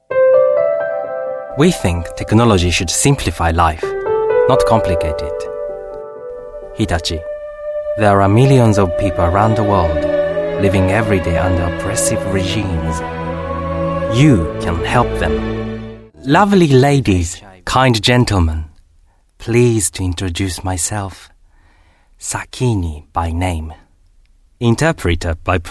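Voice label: Japanese, English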